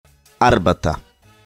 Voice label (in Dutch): "arbata" Kasjoebisch; thee-in-andere-talen